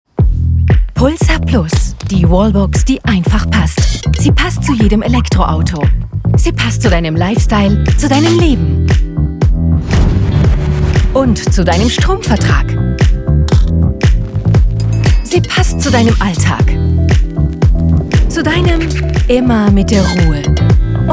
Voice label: commercial, German, female